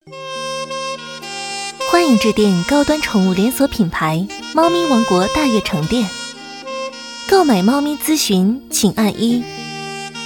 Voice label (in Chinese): 彩铃广告; 喵咪王国